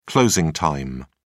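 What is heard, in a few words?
"closing time", British English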